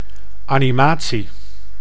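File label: "animatie", Dutch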